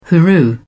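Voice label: "hooroo", British English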